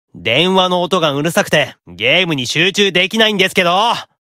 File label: Call Notification Voice; Leviathan